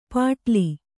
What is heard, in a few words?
"ಪಟಲಿ", Kannada